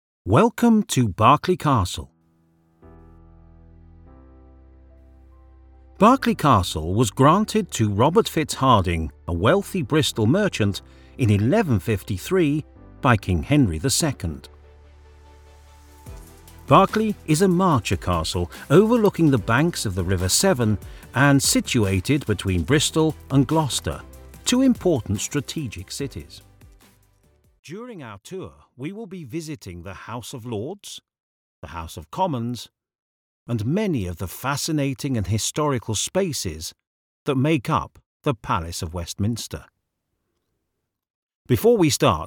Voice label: Audioguide Narration